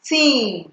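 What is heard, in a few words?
"tin", Nigerian Pidgin, female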